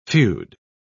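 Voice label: "feod", English, British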